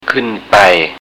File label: "kuen pai", Thai